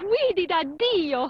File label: italian voice; BALLY; CORVETTE; Son Corvette Original